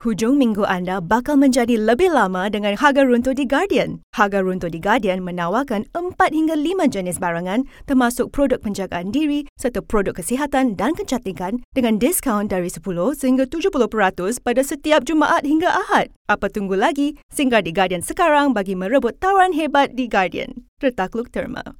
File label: malay announcements